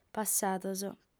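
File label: "passados", Sardinian, female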